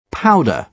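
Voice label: "powder" English, British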